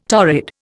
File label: "torrid", Indian